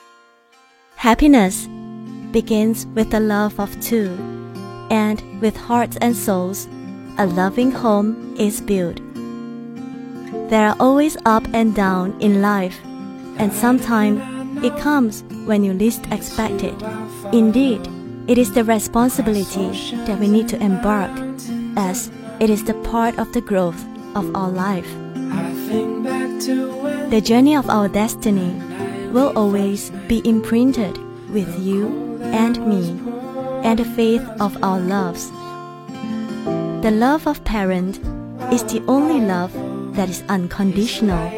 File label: BM Announcer & Character Demo, Style: Friendly & Dramatic